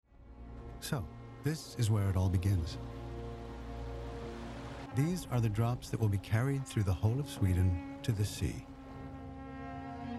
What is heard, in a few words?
nature doc